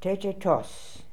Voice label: "Tetetos", pronounciations; Fourth Way; Beelzebub

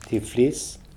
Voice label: "Tiflis", pronounciations; Fourth Way; Beelzebub

